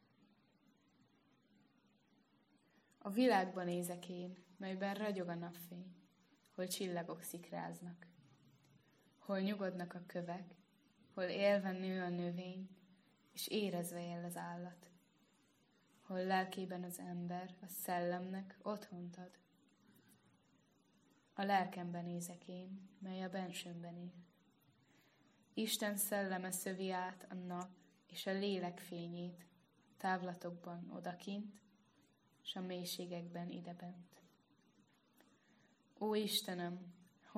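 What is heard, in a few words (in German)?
Morgenspruch Ungarn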